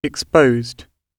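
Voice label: "exposed", British English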